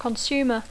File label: "consumer", English